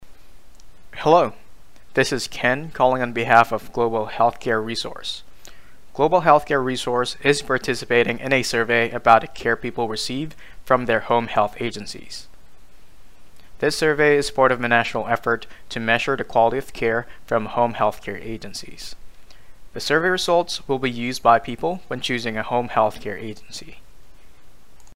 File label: Survey Sample Call; CAPHS